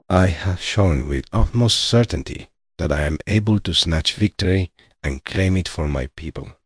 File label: happy, speech; fertig classic games; balam